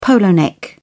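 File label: "polo-neck", British English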